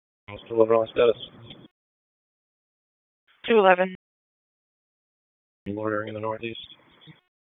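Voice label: Police Dispatch, p25; Raleigh Police Northeast District Disp; wake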